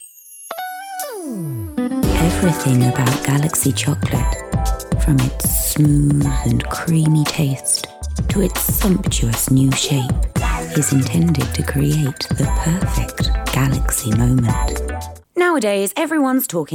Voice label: Commercial